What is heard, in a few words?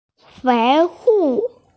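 "fei2wo4", Mandarin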